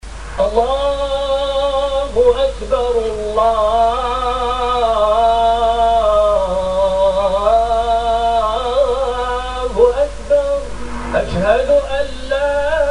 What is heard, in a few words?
muezzin